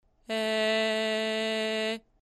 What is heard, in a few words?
"E", VOX